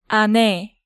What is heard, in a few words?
"Ane", Korean